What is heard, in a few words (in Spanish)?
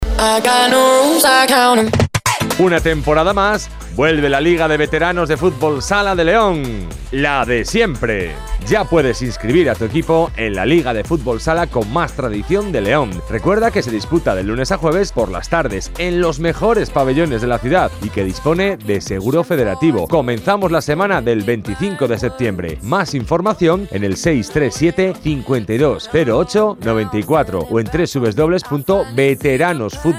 radio